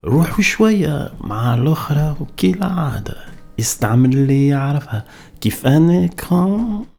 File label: POET, POET VOICE, Arabian Traditions